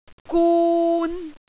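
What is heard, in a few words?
"gun3", Cantonese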